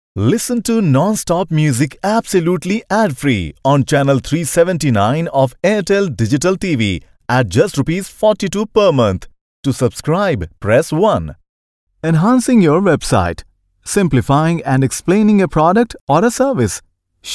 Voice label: Voice Showreel English